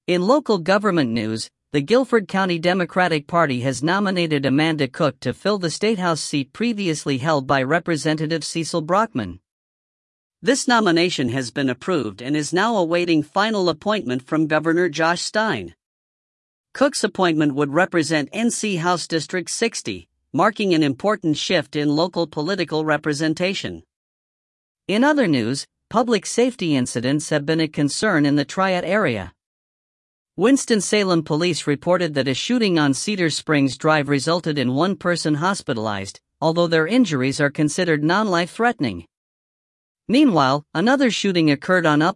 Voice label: regional news north carolina news; Triad Summary - Daily Audio News